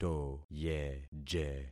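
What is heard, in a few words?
"toyeje", concatenated syllables; Affixing experiment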